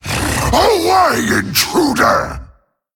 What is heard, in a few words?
Male Mummy Attack